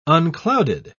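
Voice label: "unclouded", British English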